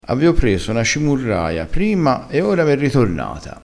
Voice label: "cimurraia", crespinese

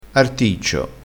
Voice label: "articcio", crespinese

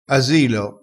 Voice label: "asilo", crespinese

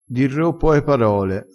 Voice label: "dirro", crespinese

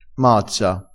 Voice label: "mazza", crespinese, vocabolario dialettale

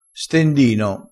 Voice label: "stendino", crespinese

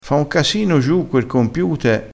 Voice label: "compiute", crespinese

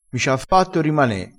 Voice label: "mi cia", crespinese

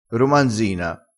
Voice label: "romanzina", vocabolario dialettale

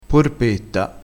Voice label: "porpetta", dialettale, crespinese

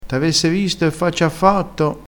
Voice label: "avesse", crespinese

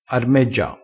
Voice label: "armeggia", crespinese

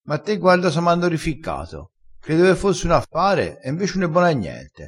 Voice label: "rificca", dialettale, crespinese

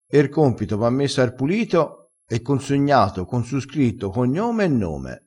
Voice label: "ar pulito", crespinese

